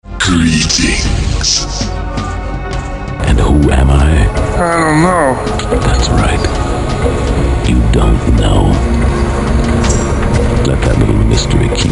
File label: Promo Spot; Tarrant